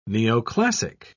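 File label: "neoclassic", British English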